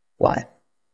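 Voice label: Voice Acting, Spanish, male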